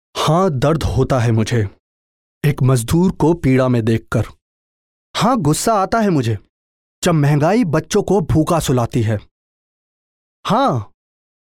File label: hindi, male voice